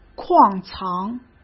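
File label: "矿藏", Mandarin Chinese; chinese flashcards; hsk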